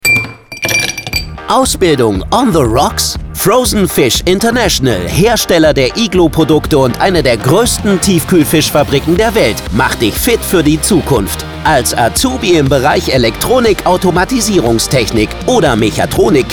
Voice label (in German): jugendlich dynamisch, werbung off; frozen fish